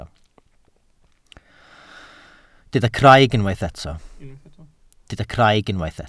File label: "craig", Welsh